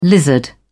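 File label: "lizard", British English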